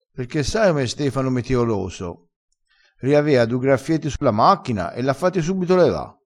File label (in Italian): "metioloso", crespinese